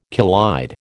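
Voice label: "collide", American English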